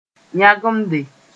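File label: "yãgemde", Mossi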